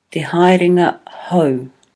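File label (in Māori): "te haerenga hou", Māori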